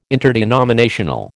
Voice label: "interdenominational", American